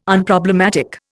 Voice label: "Unproblematic", Indian